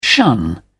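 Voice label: "shun", British English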